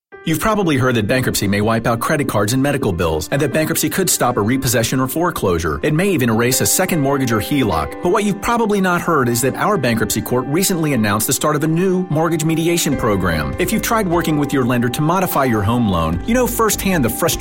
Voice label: radio ad